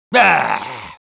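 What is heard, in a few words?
Sniper jeers, Spanish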